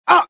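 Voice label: death, dialogue generic Italian; mohaa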